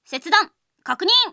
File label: device off, Japan Anime Girls; Windows; Bing; Nanao